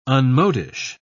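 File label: "unmodish", British English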